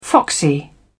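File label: "foxy", English, British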